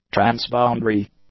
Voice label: "transboundary", English; adjectives

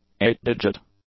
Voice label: "eight-digit", English; adjectives

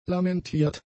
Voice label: "lamentiert", German; adjectives

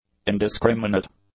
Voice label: "indiscriminate", English

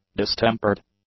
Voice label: "distempered", English

